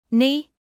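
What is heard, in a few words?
"knee" English, British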